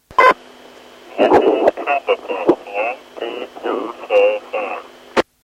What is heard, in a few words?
cz voice scrambler dgram